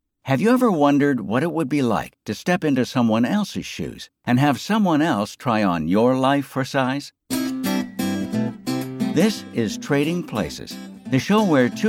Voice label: Documentary